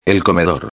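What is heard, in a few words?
"el comedor", Spanish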